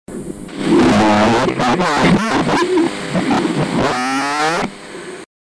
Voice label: male; Male sta, esplode, neh…; SANT'ERASMO